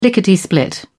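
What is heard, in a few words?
"lickety split", British English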